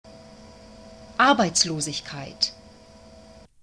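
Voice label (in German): "Arbeitslosigkeit", German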